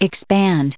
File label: "expand", us